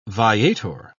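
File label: "viator", British English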